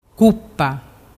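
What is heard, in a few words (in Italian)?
"cuppa", Vernazzese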